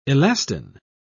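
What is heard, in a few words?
"elastin", British English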